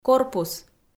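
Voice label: "корпус" Komi, female; audio dict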